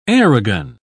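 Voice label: "aerogun", British English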